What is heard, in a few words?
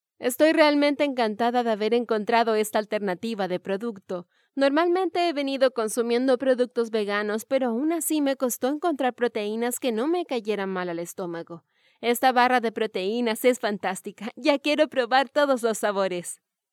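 Spanish Latin America female sales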